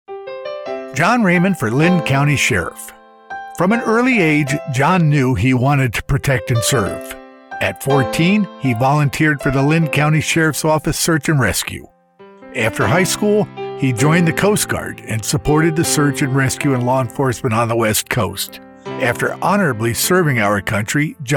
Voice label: Political Radio Advertising